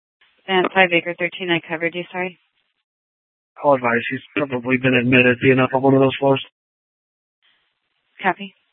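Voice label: police dispatch radio, scanner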